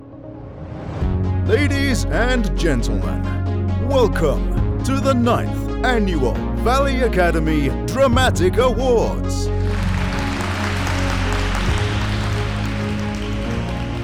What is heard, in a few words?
english british tv shows